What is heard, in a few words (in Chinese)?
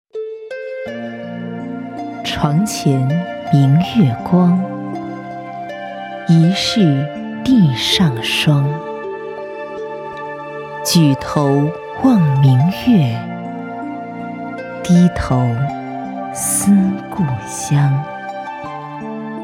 专题课件 静夜思